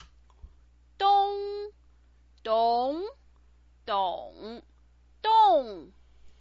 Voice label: "dong", Chinese pinyin